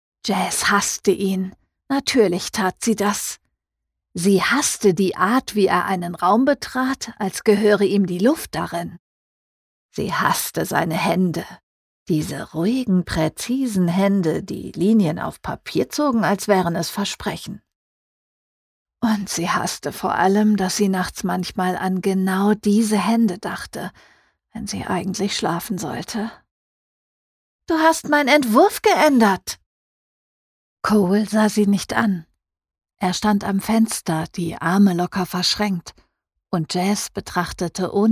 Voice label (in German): Hoerprobe, sprecher